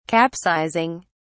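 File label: "capsizing", English, British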